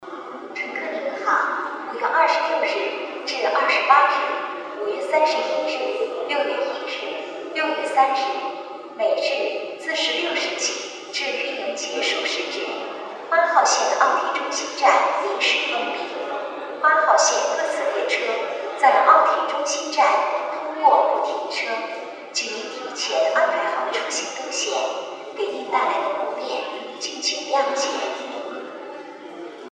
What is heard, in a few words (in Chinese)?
奥体中心站临时封站广播